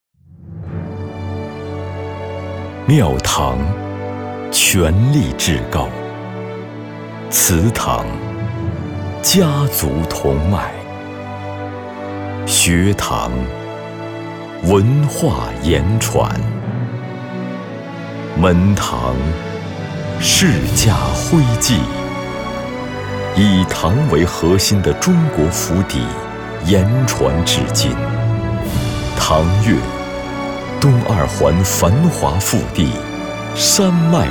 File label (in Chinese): 房地产类广告; 府邸; 建筑房产